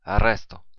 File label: stop, occitan